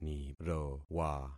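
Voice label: "nirowa", concatenated syllables; Affixing experiment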